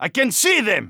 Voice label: Phoenix Radio Enemy spotted; Counter-Strike Global Offensive; PS3 Windows Xbox 360

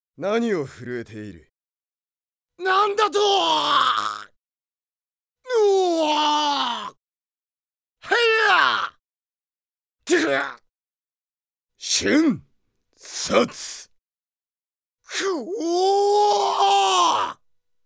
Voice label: character voice; D-XHIRD

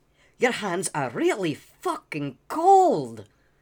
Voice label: Male Beggings

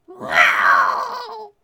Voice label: Voices Female

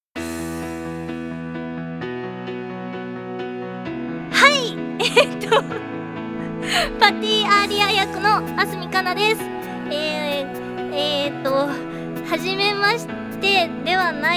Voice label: Voice Message; cross world; character collection 1

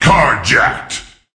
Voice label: Voices Announcer Reward

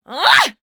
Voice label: Voices Vo Female Fight Attack

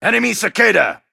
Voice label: Voices Character Male

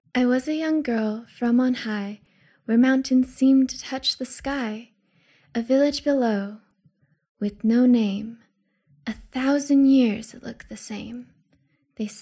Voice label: Poetry

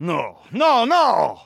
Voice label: Phoenix Scared emote; Counter-Strike Global Offensive; PS3 Windows Xbox 360

